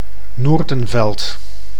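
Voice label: "Noordenveld", Dutch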